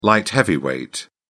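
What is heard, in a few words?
"light heavyweight", English, British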